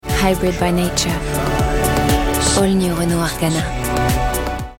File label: PUB ENG; Renault signature